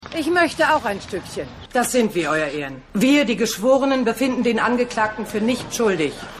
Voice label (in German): Passantin Obfrau